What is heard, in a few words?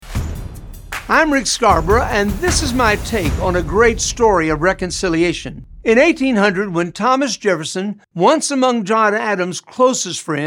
Radio Spot; Reconciliation